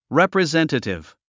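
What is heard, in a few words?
"representative", English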